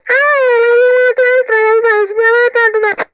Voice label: Walkie Talkie Female Chatter; Communications; 1001 Sound Effects

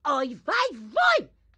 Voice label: grandma chopper annoyed; Blind Drive

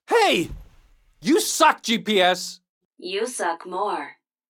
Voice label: GPS hit tutorial; Blind Drive

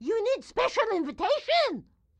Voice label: grandma chopper cmon; Blind Drive

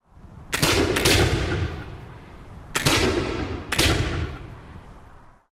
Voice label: Cowboy Cheers; Pitch Black Audio Pong

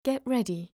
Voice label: "get Ready", voice overs

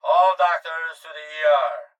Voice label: Hospital Pa Voice; Blastwave

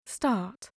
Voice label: "start", voice overs

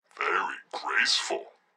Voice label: phone good graceful; Blind Drive

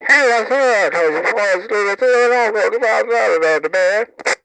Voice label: Walkie Talkie Chatter; Communications; 1001 Sound Effects

